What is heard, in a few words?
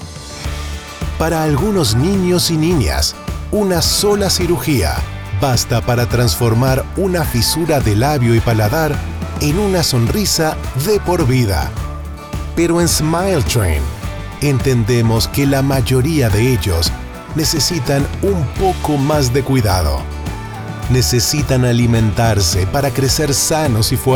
RADIO SPANISH; LIFELONG SMILES